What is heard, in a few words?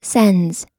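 "sends", American English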